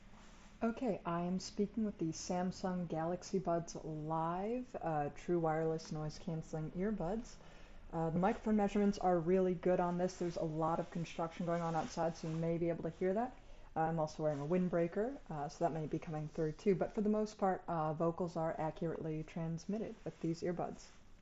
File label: Samsung Galaxy Buds Live microphone